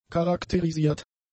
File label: "charakterisiert", German; adjectives